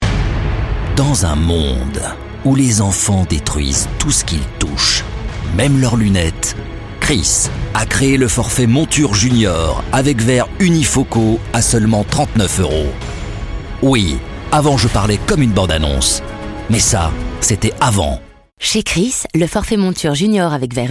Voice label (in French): blockbuster caricature; Krys